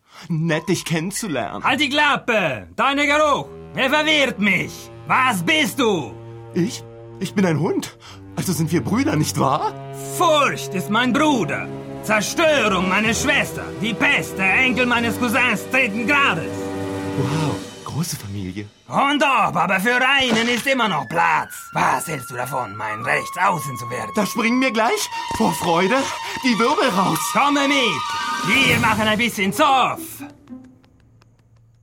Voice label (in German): Synchro Trickfilm